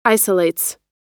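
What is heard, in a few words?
"isolates", US English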